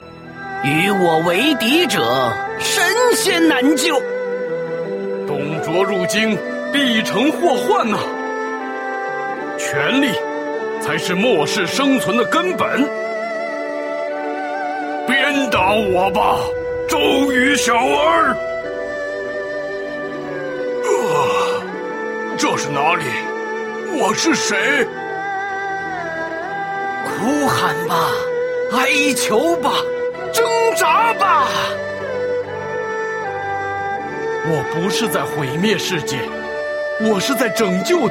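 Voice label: male middle-aged and elderly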